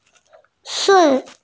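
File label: "si4", Mandarin Chinese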